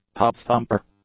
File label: "tub-thumper", English; nouns